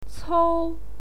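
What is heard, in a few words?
"cou", Mandarin Chinese, first tone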